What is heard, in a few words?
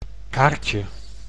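"kaartje", Dutch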